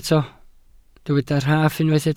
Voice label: "rhaff", Welsh